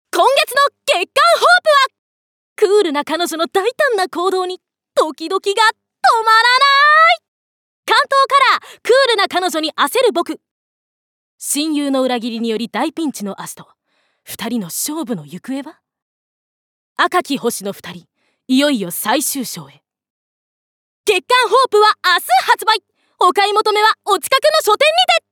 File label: cm chara